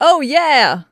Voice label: "oh yeah", Female; blastmorph pinball; xbox series xs